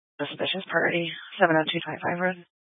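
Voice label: Police Dispatch, Scanner audio recording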